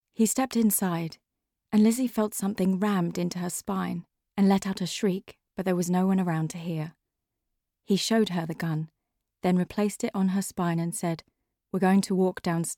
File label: Narration; Dark Corners